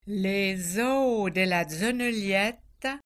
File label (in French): prononciation patois vaudois